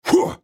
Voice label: effort; Jayce